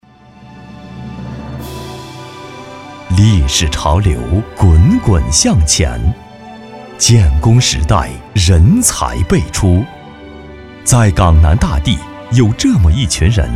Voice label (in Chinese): 男 专题 党政